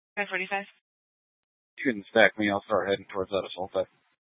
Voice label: Sheriff Dispatch, scanner